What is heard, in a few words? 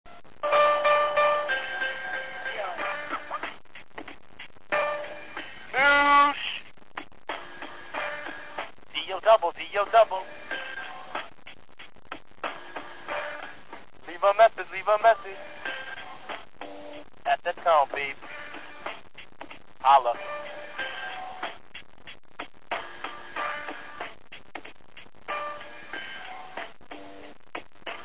Voice Mail